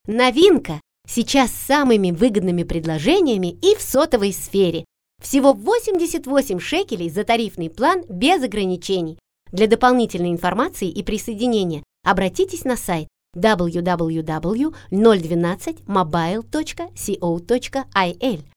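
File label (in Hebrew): Russian, קריינות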